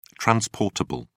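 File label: "transportable", British English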